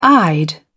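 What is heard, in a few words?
"eyed", British English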